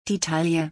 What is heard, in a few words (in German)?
"die Taille", German; body parts; Visual Dictionary